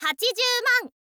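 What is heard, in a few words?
"hachizyuuman", Japanese, girl; voice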